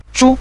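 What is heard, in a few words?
"zhu", Mandarin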